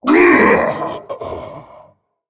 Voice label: Male Dragon Death